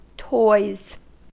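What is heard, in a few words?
"toys", US English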